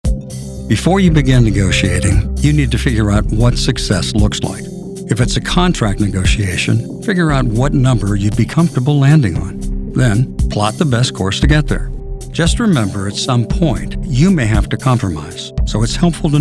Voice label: Narration